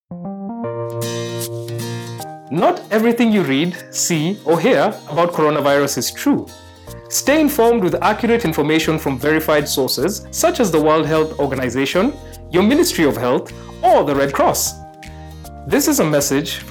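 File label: Covid19 Radio Spots English Male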